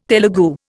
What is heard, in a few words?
"Telugu", Indian